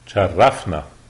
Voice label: meet, lebanese, arabic

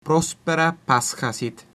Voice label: easter, latin

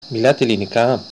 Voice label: do you speak, Greek; Μιλάτε Ελληνικά; Miláte Elliniká?; Greek (Modern)

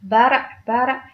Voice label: "fire", tigrinya

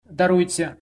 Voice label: excuse me, belarusian

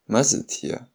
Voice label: you're welcome shanghainese

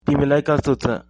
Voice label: how are you nepali; तिमीलाई कस्तो छ ?; timi lai kasto cha?

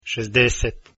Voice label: "60", Croatian

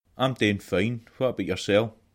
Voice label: fine scots

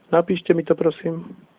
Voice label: write, slovak

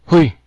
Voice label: hello, cebuano

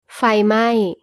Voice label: fire, thai

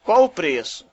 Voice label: how much, portuguese br

